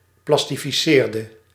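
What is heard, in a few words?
"plastificeerde", Dutch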